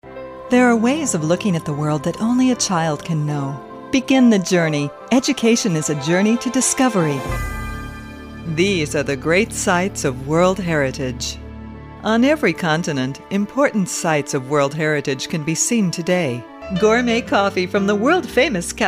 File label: warm, female voices for radio commercials